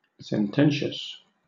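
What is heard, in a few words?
"sententious", British English; pronunciations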